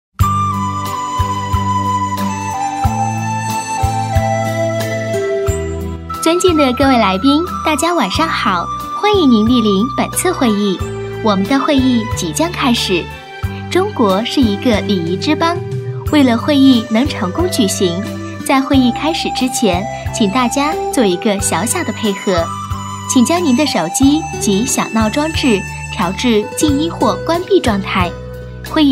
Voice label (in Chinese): 女 亲切语气欢迎您; 经济广告